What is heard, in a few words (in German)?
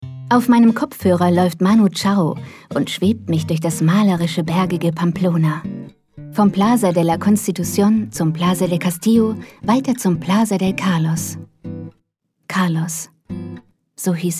Sanft